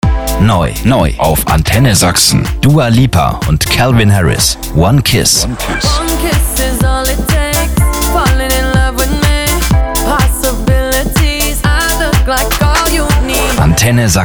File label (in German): station voice neuverpackung